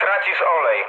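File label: damaged oil, mood low, Polish speech; War Thunder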